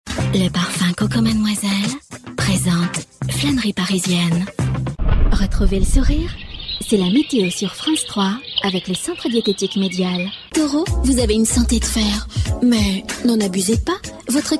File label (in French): Voix Pour Publicité